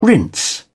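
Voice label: "rinse", British English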